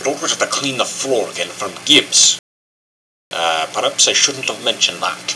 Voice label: clean floor from gibs, nerd; Quark RB